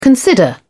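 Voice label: "consider", English, British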